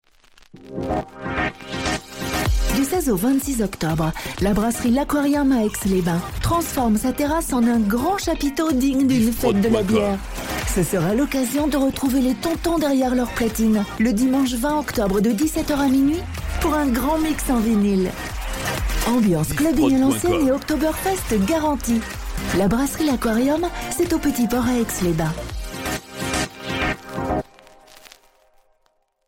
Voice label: Spot; Brasserie Aquarium Aix

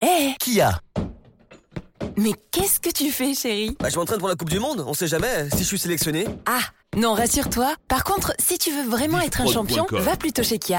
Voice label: Spot, publicite; KIA Sportage Active plus

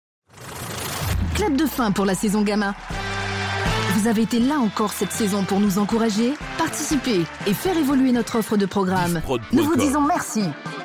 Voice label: Promo Clap de fin; Djoma Media

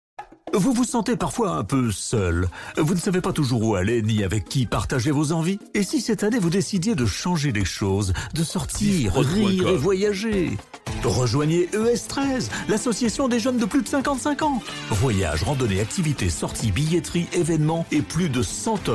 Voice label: Spot Autopromo; Diva FM

